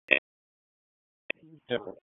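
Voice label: Raleigh Police Northeast District Dispatch, p25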